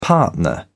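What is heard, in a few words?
"partner", British English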